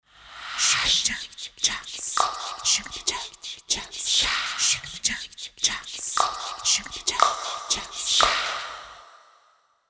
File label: whisper